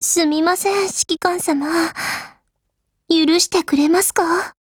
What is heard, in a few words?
FIX JP; AR70